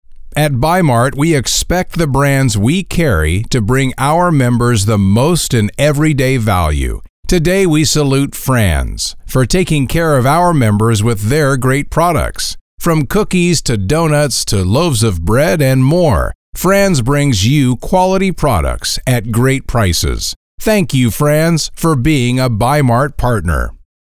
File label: In Store Message; Salute to Franz